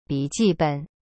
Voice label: "笔记本" bi ji ben, chinese